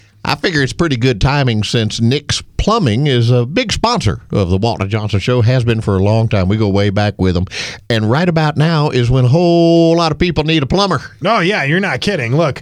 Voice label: RADIO AD; nicks plumbing radio ads